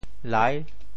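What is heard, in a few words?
"lai6", Teochew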